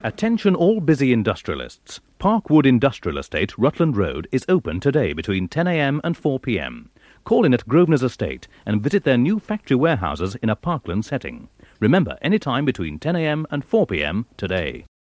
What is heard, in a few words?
Radio Hallam Advert; Grosvenor Estates